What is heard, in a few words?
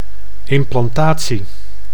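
"implantatie", Dutch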